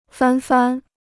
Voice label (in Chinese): "翻番", Chinese